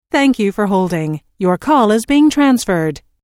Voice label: Thank You For Holding Your Call Is Being Transferred, Telephone prompt; Recorded Voice Sound Effects; LynneMusic